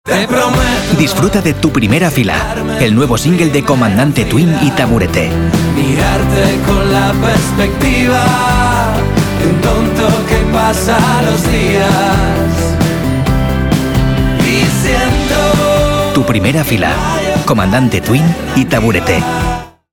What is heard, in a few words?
spanish radio imaging